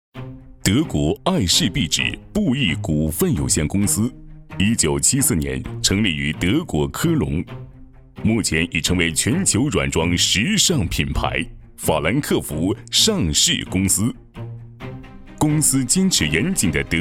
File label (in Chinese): 产宣艾仕壁纸招商